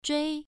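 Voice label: "zhui1", Chinese pronunciation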